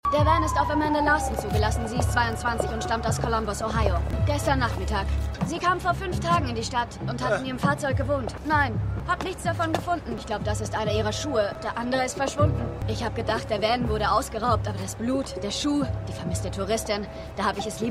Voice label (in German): Polizistin; LAW & ORDER